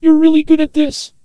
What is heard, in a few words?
Antenna Voice